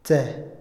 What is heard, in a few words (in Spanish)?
"frío", Otomí, hombre; Percepción; INALI